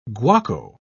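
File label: "guaco", British English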